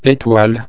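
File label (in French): "etoile", French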